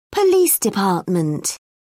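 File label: "police department", British English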